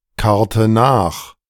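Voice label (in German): "karrte nach", German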